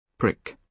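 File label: "prick" English, British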